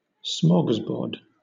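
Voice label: "smorgasbord", British English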